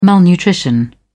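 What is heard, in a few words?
"malnutrition", English, uk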